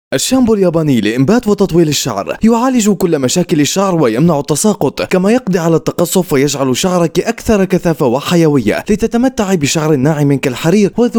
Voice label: Japanese shampoo